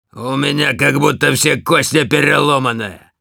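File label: Demoman jeers, russian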